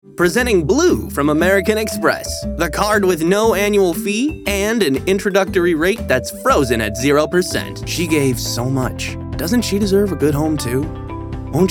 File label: Commercial, Male voices